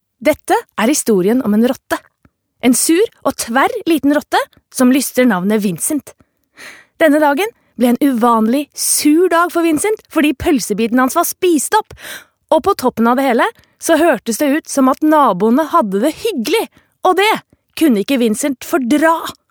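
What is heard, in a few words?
Norwegian dub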